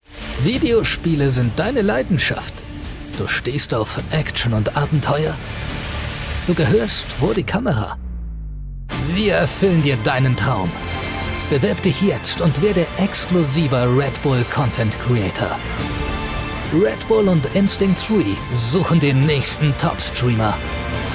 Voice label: german promos

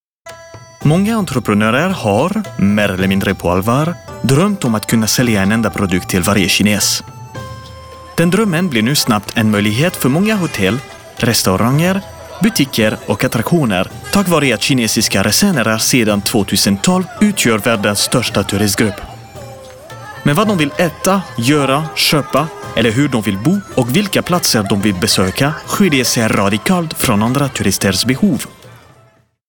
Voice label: swedish documentaries

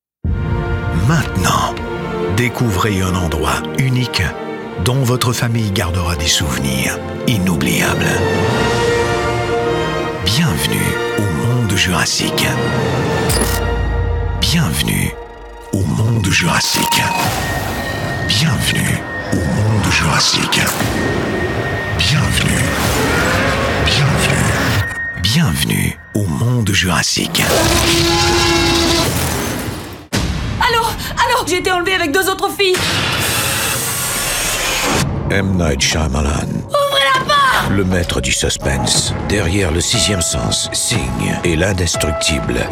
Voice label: French Canadian movie trailers

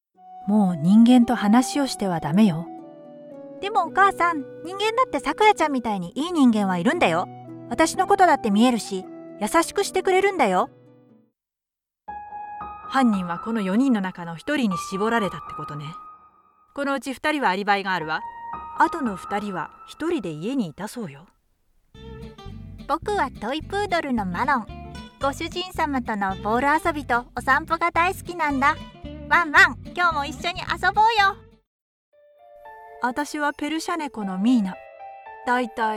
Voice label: japanese animation

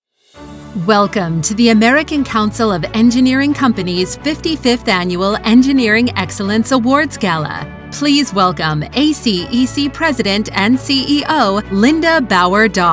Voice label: english american announcements

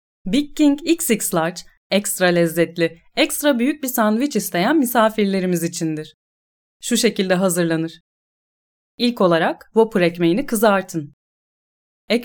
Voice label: turkish e-learning

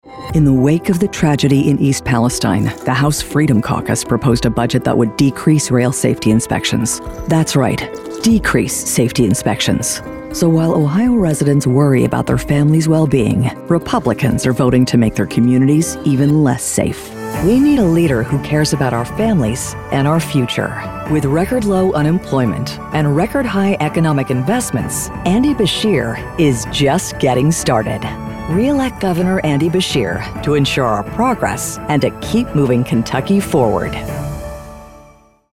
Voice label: english american political ads

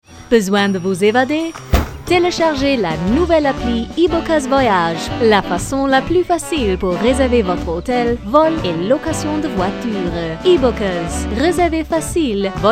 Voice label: french commercial

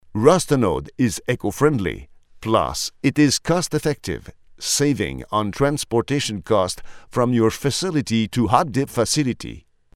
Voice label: english commercial; Franko Kanadier; Kanada; Französisch-kanadischer professioneller männlicher Sprecher

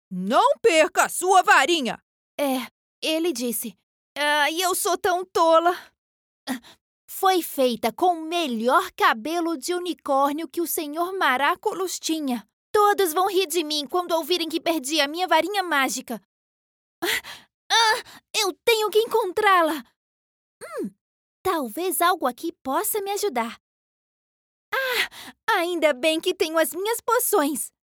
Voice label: portuguese brazilian video games

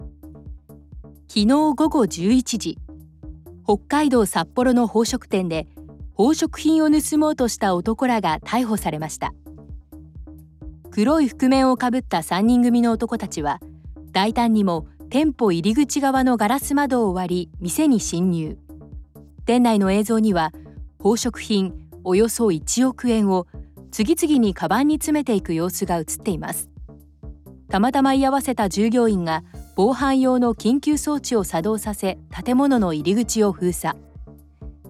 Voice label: japanese political ads

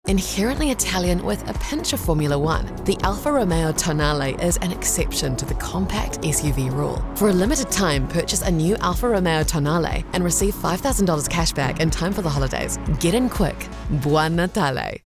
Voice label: english international automotive; voice actor

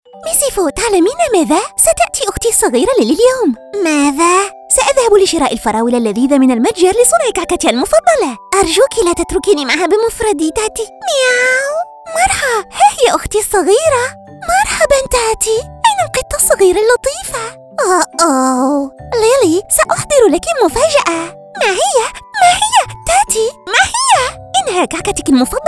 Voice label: arabic animation

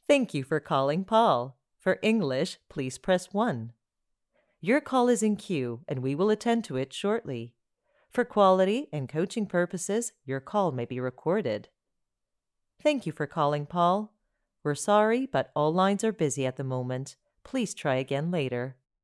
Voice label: english canadian ivr

